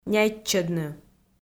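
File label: "няйтчӧдны", Komi, female; kpv audio dict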